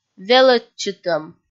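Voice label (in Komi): "велӧдчытӧм", Komi, female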